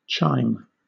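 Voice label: "chime", British English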